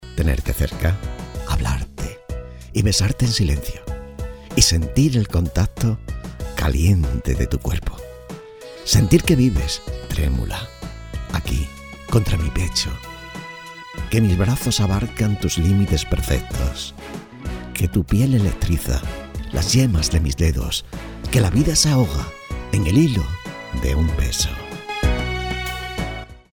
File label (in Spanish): locutor spanish voiceover